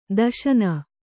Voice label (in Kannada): "ದೇಶನ", Kannada